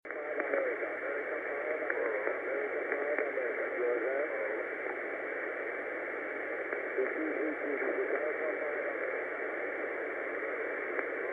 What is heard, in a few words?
cq 10m ssb